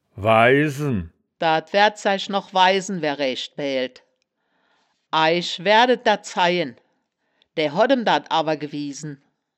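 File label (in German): "waisen", Wittlicher Wörterbuch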